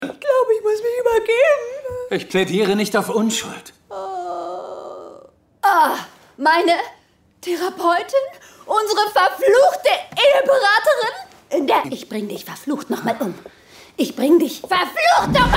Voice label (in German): Synchronmix, Lip-Sync (Synchron), German